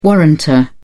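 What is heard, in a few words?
"warrantor", British English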